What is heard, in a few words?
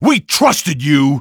we trusted you, male; Half-Life 2